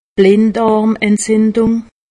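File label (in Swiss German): "blinddarmentzindung", Haut Rhin; medecine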